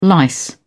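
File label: "lice", British English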